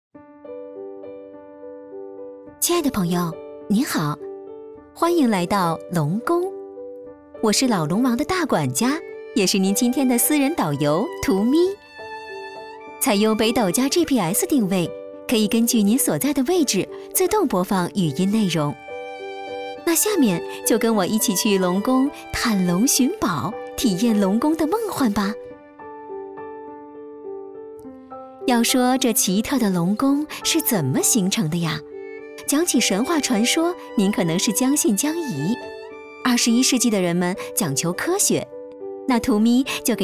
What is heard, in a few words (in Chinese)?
语音导游, 女; 龙宫探险